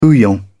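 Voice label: "bouillon", British English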